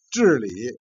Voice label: "jian", Chinese